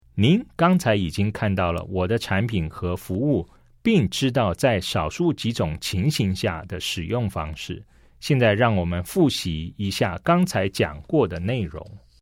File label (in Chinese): CHINESE